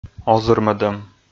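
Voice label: "озырмӧдӧм", Komi, male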